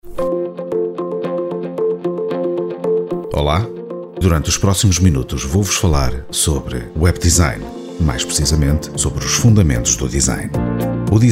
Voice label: Portuguese Male eLearning Iberian

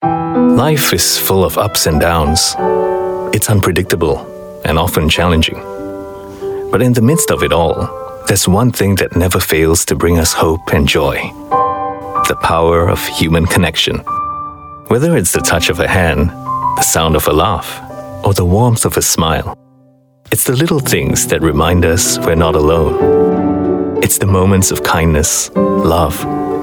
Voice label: Asian English Soft Read

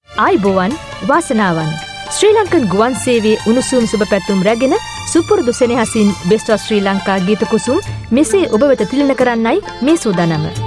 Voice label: Sinhalese Female

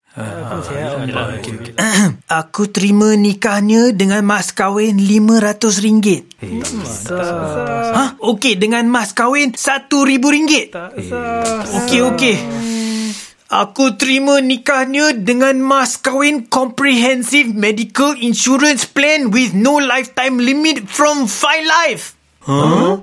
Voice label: Malay Promo

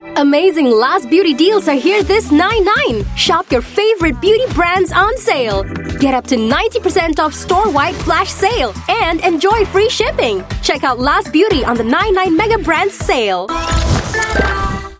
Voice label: Asian English; Lazada LazBeauty

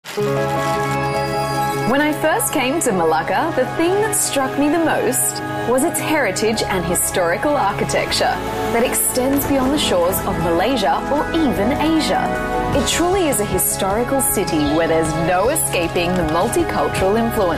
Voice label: AU English Voice Sample, Australian Female

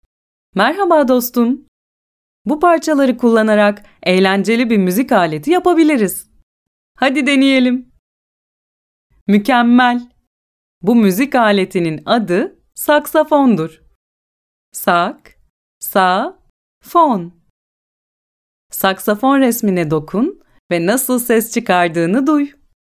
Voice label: Turkish Kids Game, Female

